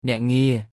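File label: "អ្នកងារ", Khmer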